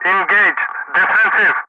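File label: "engaged defensive", English; Ka-50; Wingman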